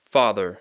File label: "father", English, A o sound